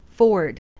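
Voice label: "ford", English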